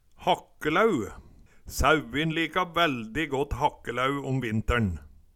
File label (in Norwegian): hakkelæu, Numedalsmål; Ordet nyttas berre i eintal.